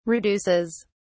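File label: "reduces", English, British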